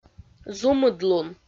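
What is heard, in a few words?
"зумыдлун", Komi, female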